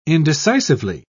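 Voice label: "indecisively", British English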